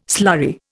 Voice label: "slurry", Indian

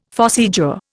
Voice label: "phossy jaw", Indian; Dictionary

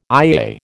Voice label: "IAA", American

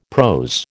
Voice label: "prose", American

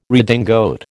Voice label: "redingote", American

